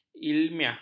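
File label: "ilmiah", Malay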